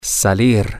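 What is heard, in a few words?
Spanish, to leave go out, male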